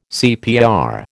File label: "CPR", American